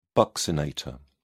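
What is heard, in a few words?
"buccinator", English, British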